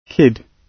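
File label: "kid", British English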